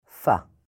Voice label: "Fuh", Hebrew; hebrew introduction